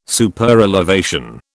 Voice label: "Superelevation", British

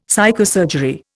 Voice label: "psychosurgery", Indian; Dictionary

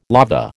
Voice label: "lava", American

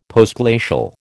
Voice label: "Postglacial", American

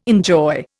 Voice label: "enjoy", Indian

